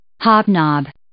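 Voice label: "hobnob", English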